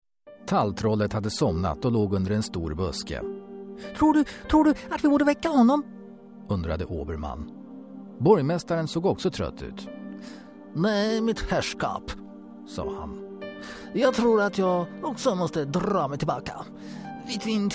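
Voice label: Swedish, Narrative; GoLocalise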